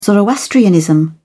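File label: "zoroastrianism", British English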